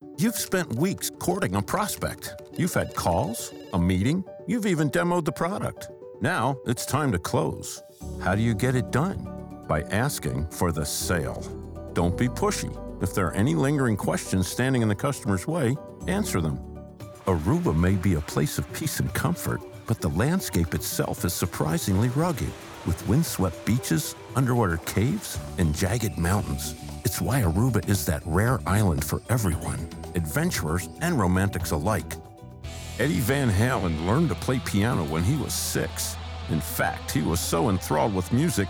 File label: narration